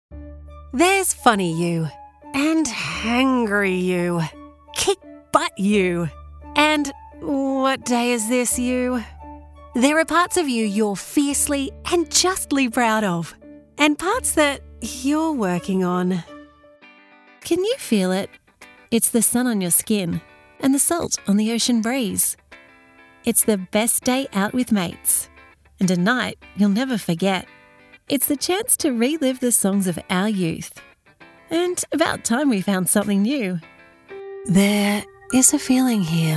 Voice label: natural speak

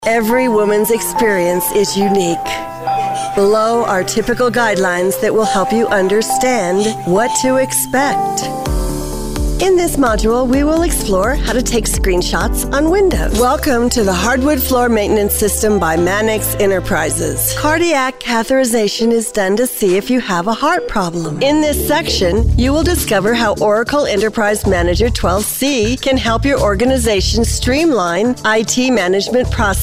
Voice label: Elearning Sizzle

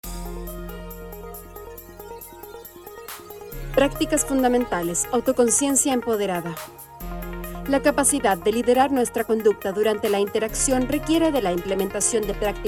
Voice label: e-learning

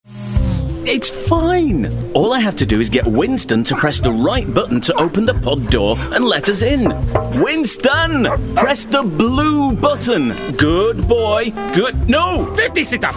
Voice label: character animation

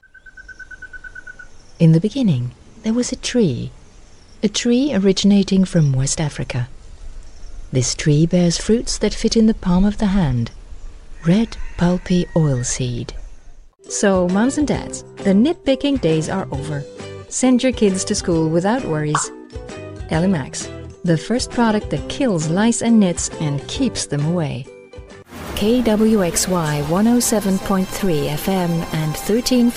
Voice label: FOREIGN LANG EN-DE-NL-FR

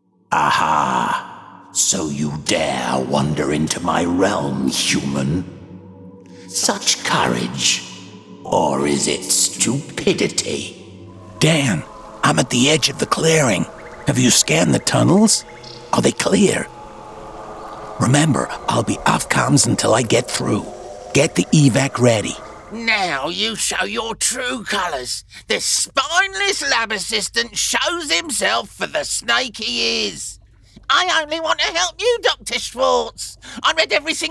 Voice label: Character

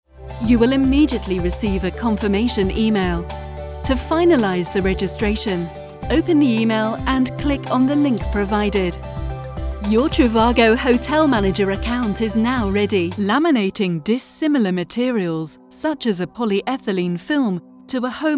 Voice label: Corporate Showreel

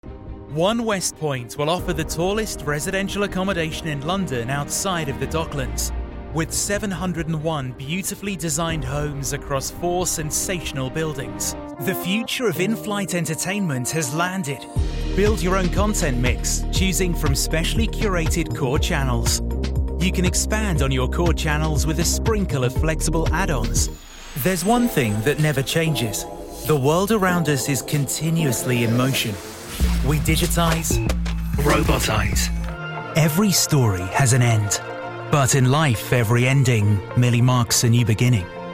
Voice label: Corporate

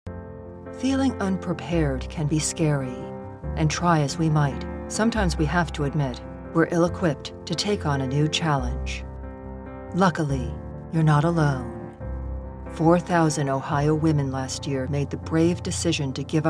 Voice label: commercial; Adoption Connection

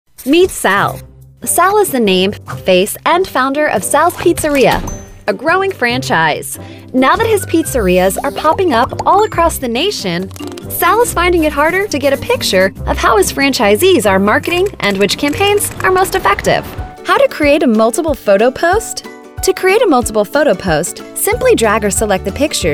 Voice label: Narration E-Learning

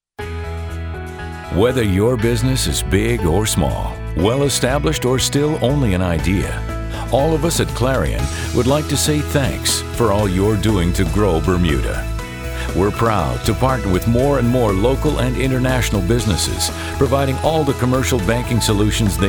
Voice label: Radio

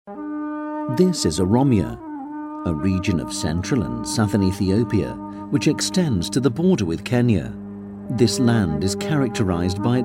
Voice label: UK Eng narration

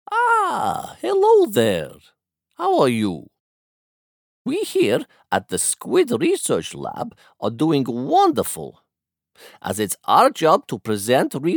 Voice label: Game Character Russian Scientist

